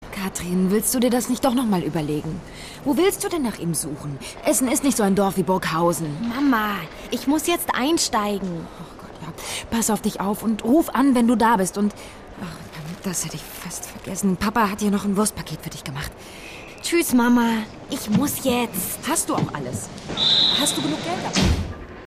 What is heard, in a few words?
radionovela